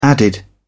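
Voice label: "added", English, British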